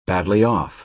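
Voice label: "badly-off", American English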